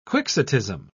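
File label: "quixotism", English, uk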